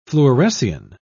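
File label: "fluorescein", British English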